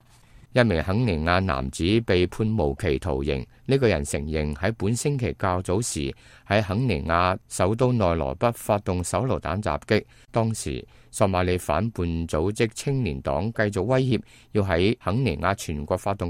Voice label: cantonese, news